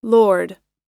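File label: "lord", American English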